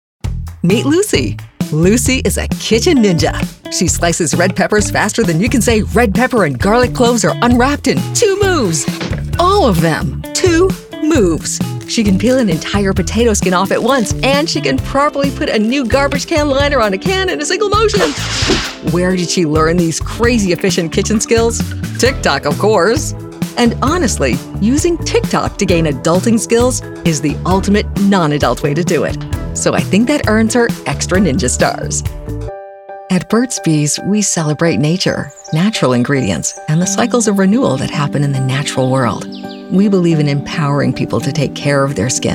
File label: Corporate Explainer, voice-over; Demo